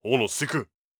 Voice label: angry

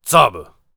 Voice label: VO warrior conversation emotion damn

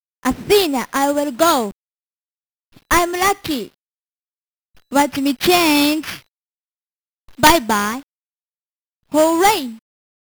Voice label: English Voice; psycho soldier; msx; Athena Asamiya

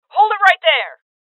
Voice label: Voices Freeze Female

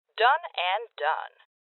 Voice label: Confirmation Female

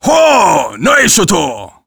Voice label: Warrior emotion excited

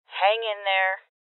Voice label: Voices Heal Female; rebel cops 2019

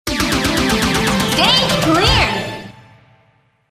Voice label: Stage Clear (Female); Dance Super Station; arcade

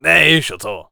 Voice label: warrior conversation emotion excited

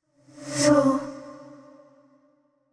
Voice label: worried short; yamiko

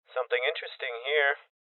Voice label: Voices Loot Spotted Male Young; Rebel Cops

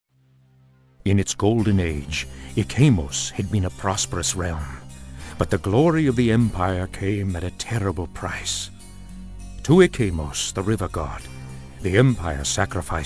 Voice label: narration; ikaemos

